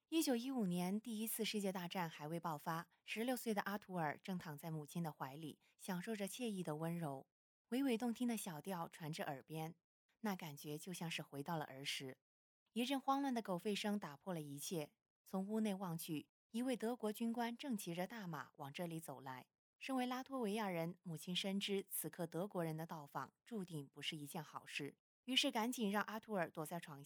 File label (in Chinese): 温柔型电影解说